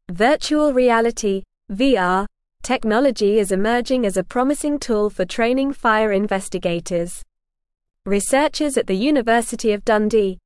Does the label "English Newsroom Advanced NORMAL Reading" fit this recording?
Yes